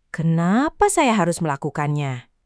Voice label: indonesia, indonesian negative phrases